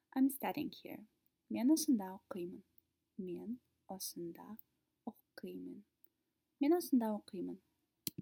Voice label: I am studying here, kazakh

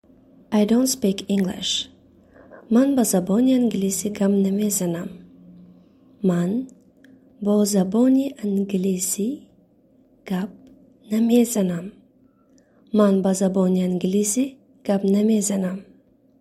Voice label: I dont Speak English, Tajik

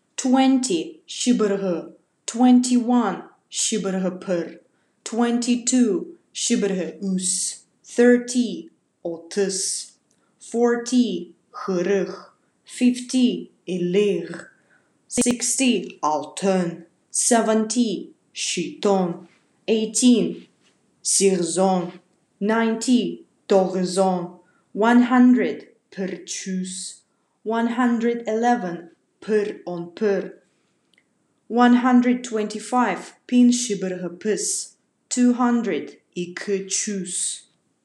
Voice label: Khakas 20 200

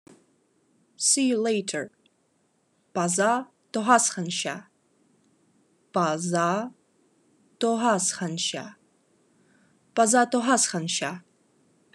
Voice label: Khakas See you later

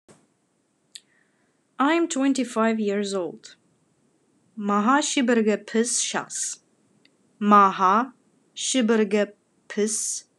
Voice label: Khakas I am 25 years old

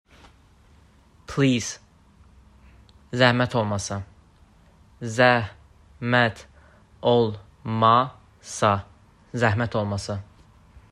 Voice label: Please. Zəhmət olmasa, azerbaijani

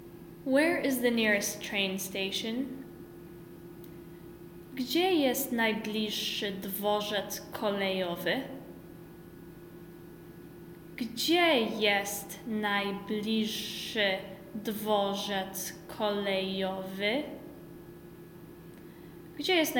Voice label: Where is the nearest train station, Polish; the talking polish phrasebook

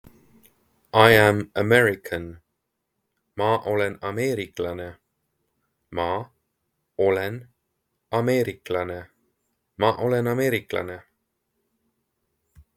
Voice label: I am American, Estonian; the talking estonian phrasebook

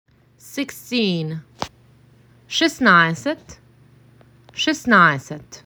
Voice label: MAC 6, macedonian phrasebook

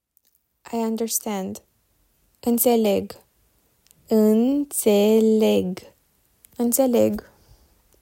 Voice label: Romanian I understand

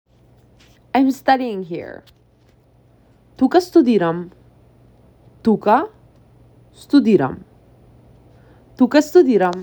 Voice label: I am Studying Here STUDENT, macedonian; the talking macedonian phrasebook

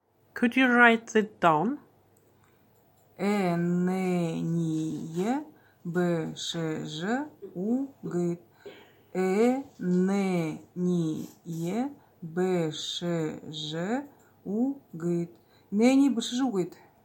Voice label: Could you write that down, Buryat

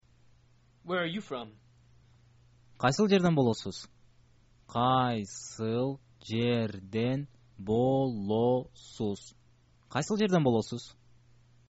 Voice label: where are you from, kyrgyz

